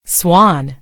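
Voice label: "swan", American English; gcompris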